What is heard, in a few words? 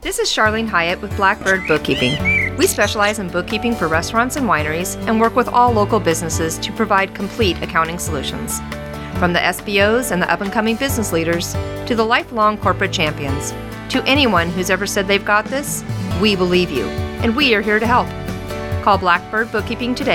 Radio Ad